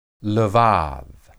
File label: "lebad", Hebrew